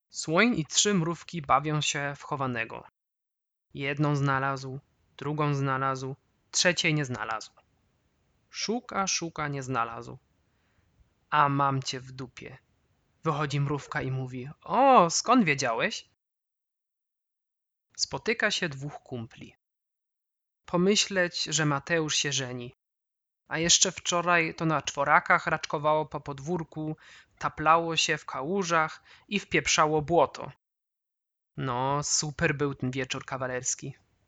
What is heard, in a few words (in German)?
Stimmprobe Polnisch